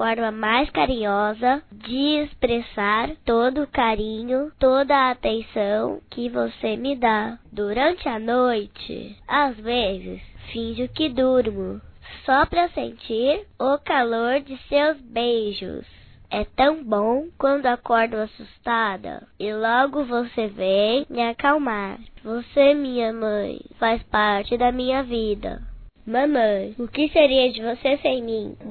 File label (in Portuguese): Dia das Mães geral infantil, telemensagens; Telemensagens 2017